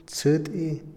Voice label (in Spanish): cincel, Otomí, hombre; INALI